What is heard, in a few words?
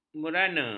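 "merana", Malay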